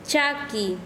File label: "pie", Quechua Chanka